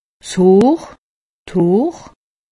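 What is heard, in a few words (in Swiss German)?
"hoor", Alsatian; medecine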